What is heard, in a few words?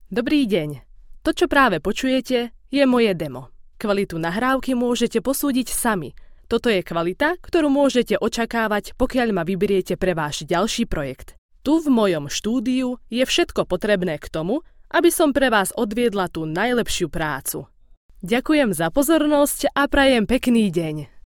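Slovak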